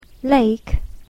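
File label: "lake", English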